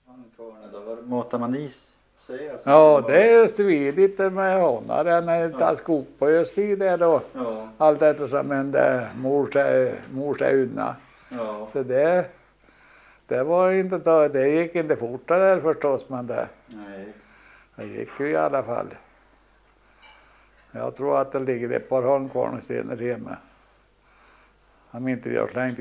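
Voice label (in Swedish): Botilsäter Värmland, Svenska sagor, dialekter; Folkminnen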